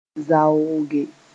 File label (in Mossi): "zaooge", moore